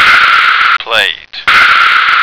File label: "plate", American